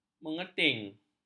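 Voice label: "mengetin", Malay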